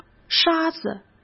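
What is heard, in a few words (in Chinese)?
"沙子", Mandarin Chinese; hsk; chinese-flashcards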